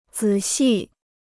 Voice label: "仔细", Chinese